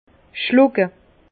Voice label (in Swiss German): "schlucke", Bas Rhin; vin; avaler